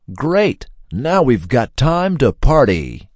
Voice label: "great now weve got time to party", male; MALE MISC